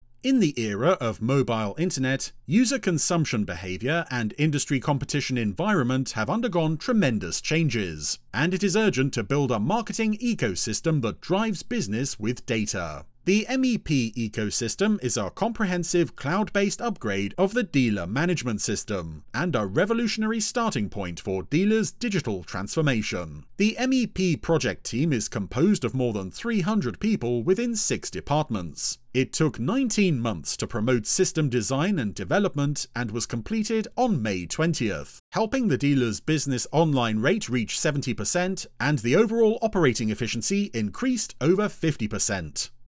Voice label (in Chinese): 外籍英男, 干音